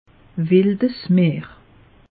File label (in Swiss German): "wilds meer", alsace; meteo